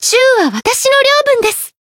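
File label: variable chant, Japanese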